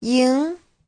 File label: "ying2", Chinese; Giáo trình Hán ngữ